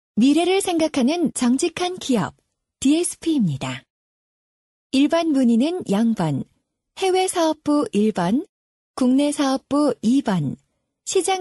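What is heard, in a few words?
KOR F various, Korean, female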